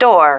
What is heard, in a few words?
"door", female